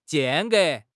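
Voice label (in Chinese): "间隔", Chinese; HSK6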